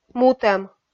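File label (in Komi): "мутӧм", Komi, female; kpv audio dict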